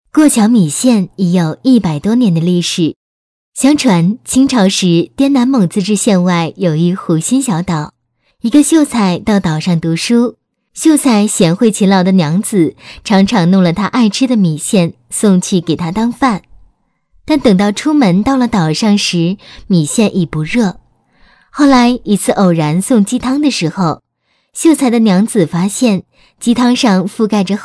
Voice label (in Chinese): 女声; 过桥米线